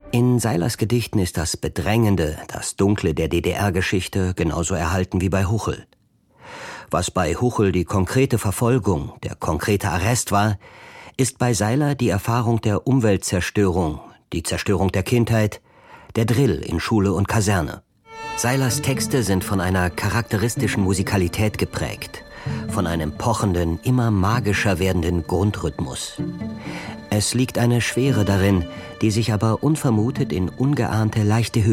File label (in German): Kommentar